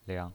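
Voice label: "liang", Mandarin Chinese, neutral tone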